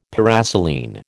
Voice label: "paraselene", American

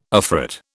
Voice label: "afrit", British

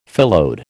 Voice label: "phyllode", American

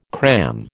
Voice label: "cram", American

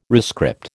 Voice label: "rescript", American

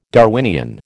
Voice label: "Darwinian", American

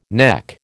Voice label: "neck", American

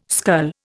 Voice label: "scull", Indian

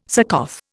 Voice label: "Succoth", Indian

